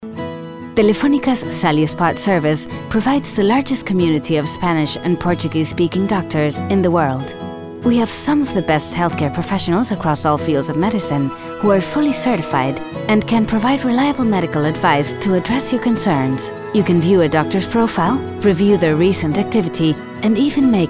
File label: Healthcare Network - English